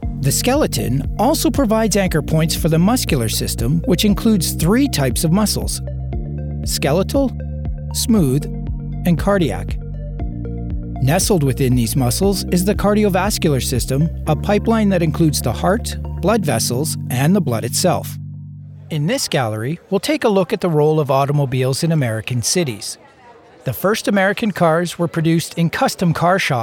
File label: Narration; Calgary, Alberta